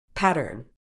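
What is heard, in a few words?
"pattern", medical English, British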